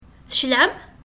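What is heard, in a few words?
Kabyle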